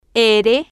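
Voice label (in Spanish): "r", spanish, female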